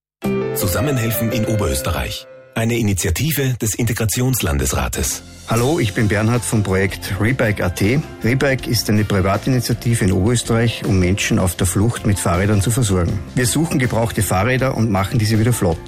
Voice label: Radio spot; re bike